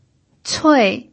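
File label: "cui" fourth tone, Mandarin Chinese pinyin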